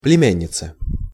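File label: "plemjannica", Russian pronunciation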